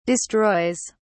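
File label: "destroys", British English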